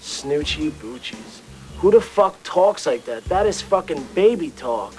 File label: baby talk